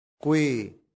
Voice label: "qui", latin; santillana

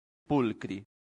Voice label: "pulchri", Latin; santillana

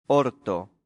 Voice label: "horto", Latin; santillana

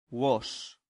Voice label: "vos", Latin; santillana

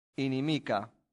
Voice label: "inimica", Latin; santillana

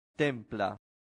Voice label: "templa", latin; santillana

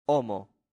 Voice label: "homo", latin; santillana

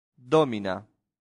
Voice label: "domina", latin; santillana

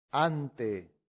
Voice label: "ante", latin; santillana

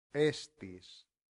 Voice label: "estis", Latin; santillana

